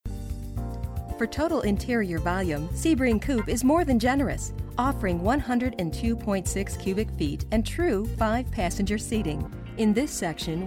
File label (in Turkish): Amerikan Ingilizcesi Kadin